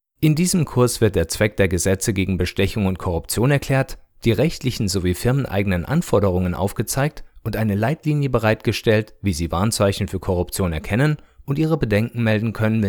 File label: e-learning German